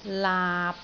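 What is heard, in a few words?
"laap6", Cantonese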